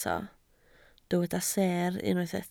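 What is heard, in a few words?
"seer" Welsh, spoken word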